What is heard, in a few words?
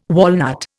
"walnut", Indian